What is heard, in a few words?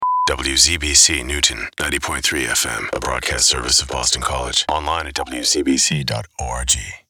LEGAL DRY BEEP FRONT; WZBC